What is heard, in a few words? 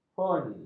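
"fon", Malay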